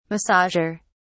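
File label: "massager", British English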